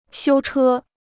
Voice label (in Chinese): "修车", Chinese